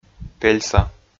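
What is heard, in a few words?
"пельса", Komi, male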